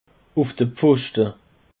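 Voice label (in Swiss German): "pfoschte", Bas Rhin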